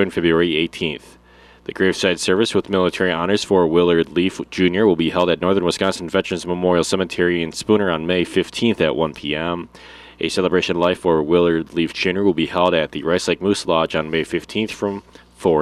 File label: Funeral Announcements; funeral announcements monday march 10 2025